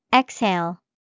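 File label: "exhale", English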